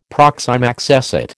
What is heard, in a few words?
"proxime accessit", American